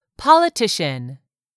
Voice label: "POLITICIAN", English; 3000 words